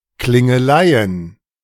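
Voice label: "Klingeleien", German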